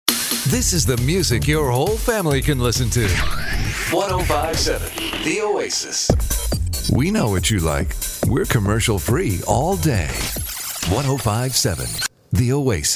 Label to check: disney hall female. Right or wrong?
Wrong